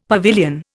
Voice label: "pavilion", Indian; Dictionary